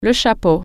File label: "hat", French